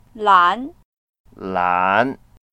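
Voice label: "lan2", Mandarin Chinese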